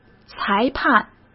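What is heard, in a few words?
"裁判", Mandarin Chinese; chinese-flashcards; hsk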